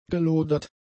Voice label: "gelodert", German; adjectives